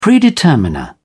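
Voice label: "predeterminer", British English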